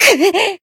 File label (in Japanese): damaged jp